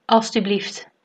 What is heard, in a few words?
please, dutch